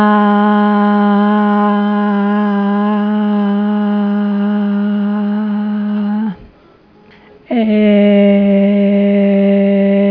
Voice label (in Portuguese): Equilibrada, Ressonância; fonoaudiologia